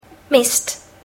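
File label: "mist", English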